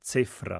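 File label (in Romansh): "cefra" Romansh, Sursilvan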